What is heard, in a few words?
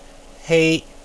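"hei1", Mandarin Chinese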